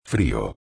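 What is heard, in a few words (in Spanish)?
"frio", Spanish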